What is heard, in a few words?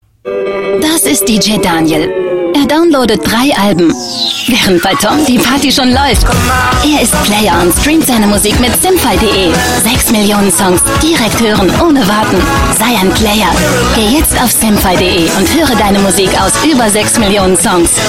German Female; SIMFY